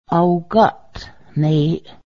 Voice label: it is rough, Cayuga; ao:gaˀt neˀ

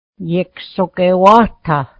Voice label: tea towel, mohawk

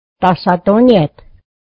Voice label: pass it, mohawk

